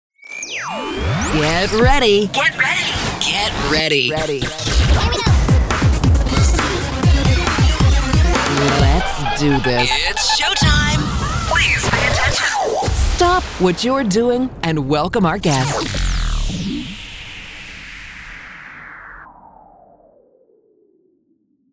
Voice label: Guest Imaging Intro